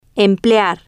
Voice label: "emplear", spanish